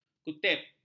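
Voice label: "kutip", Malay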